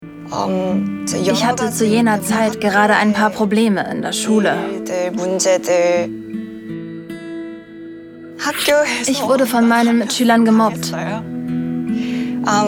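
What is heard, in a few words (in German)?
Voiceover Doku bedrückt nachdenklich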